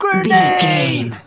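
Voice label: voice male soldier grenade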